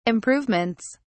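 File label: "improvements", British English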